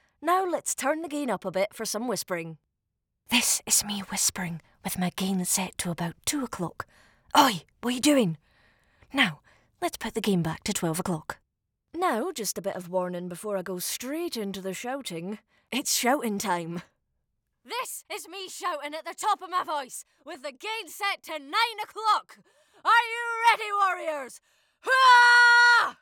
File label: RAW Studio Sample